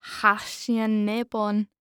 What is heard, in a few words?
Horror Scary Human Female Speaking Spell